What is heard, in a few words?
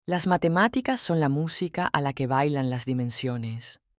spanish woman clear